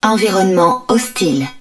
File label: HUD Hostile Environment, french; Battle Engine Aquila